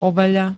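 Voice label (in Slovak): "overený", Slovak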